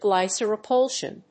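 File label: "glycerophosphocholine", English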